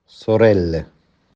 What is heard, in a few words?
"Schwestern", "sorelle"